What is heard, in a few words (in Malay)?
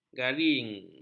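"garing", Malay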